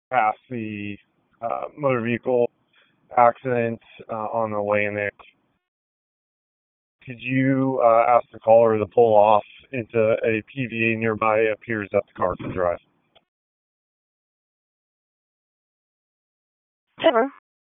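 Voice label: Police Northeast District Dispatch, Raleigh; wake; p25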